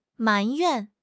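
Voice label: "埋怨", Mandarin Chinese; HSK6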